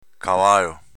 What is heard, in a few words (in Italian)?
"cavalo", dialetto veneto; Vocabolario Veneto-Italiano